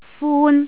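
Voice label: "fun1", Cantonese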